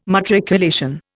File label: "matriculation", Indian